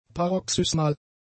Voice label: "paroxysmal", German; adjectives

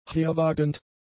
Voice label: "herwagend", German; adjectives

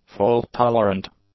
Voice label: "fault-tolerant", english; adjectives

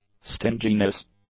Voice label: "stinginess", english; nouns

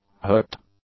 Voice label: "hooked", English; adjectives

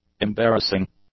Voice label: "embarrassing", English; adjectives

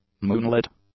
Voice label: "moonlit", English; adjectives

